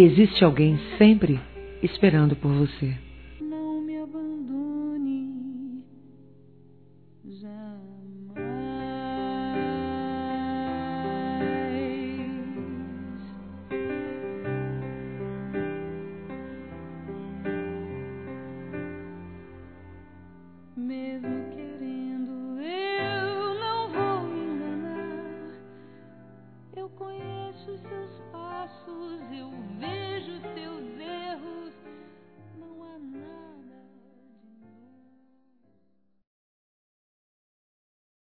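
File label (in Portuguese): ROMÂNTICA DISTANTE F, Páscoa, fem; Telemensagens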